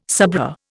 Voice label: "sabreur", Indian